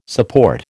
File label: "support", American